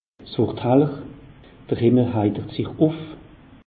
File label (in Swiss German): "heller", alsace; meteo